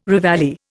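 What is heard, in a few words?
"reveille", Indian English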